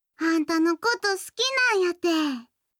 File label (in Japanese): 好きです 岐阜; やよい